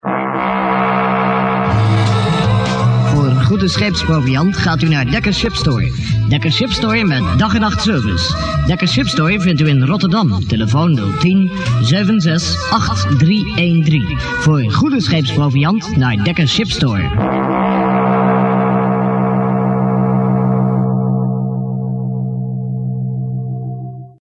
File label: commercial